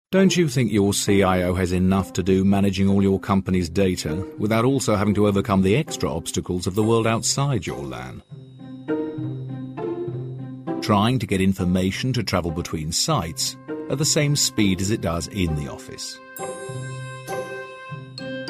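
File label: English British male voice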